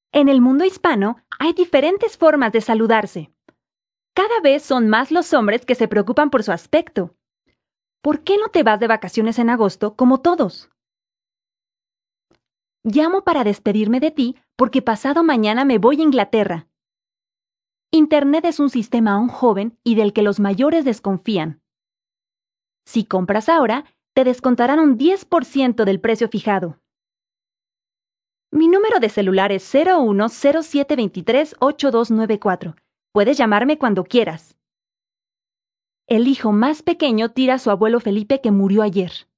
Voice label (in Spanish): Spanish South America, F